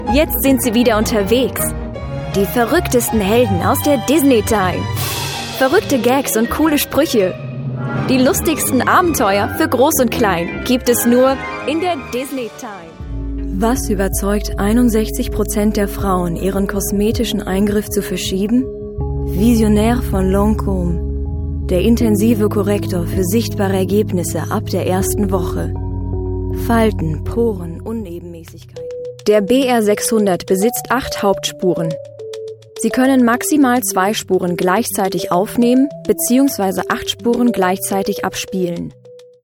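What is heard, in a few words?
German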